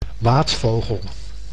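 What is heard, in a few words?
"waadvogel", Dutch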